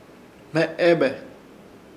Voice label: "Hmeebe" dedos de manos, Ishir Chamacoco